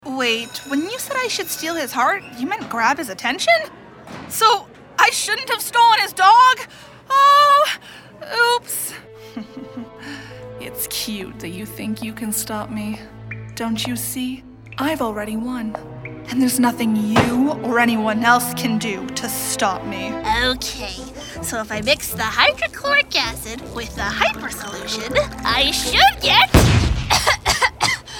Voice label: Animation Demo